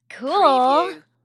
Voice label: Teen Girl Says Cool

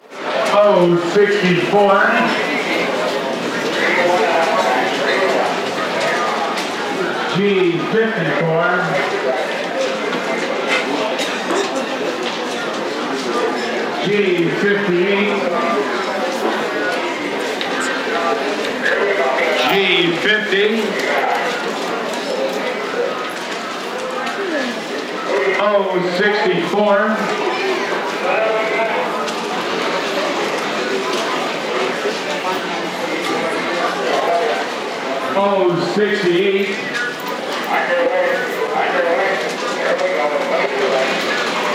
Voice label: Bingo Game Announcer

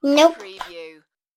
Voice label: Child says Nope; beanstalk audio

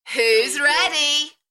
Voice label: UK female, Who is ready, Enthusiastic; beanstalk audio

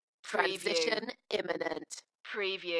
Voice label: UK female, Transition imminent, Dalekness; beanstalk audio

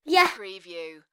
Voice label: Child says Yeah; beanstalk audio

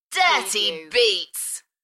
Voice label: UK female Dirty beats Enthusiastic; beanstalk audio

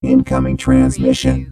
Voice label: Robot voice "incoming transmission"; lynne publishing

